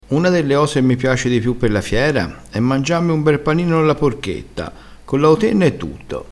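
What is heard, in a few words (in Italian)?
"cotenna", dialettale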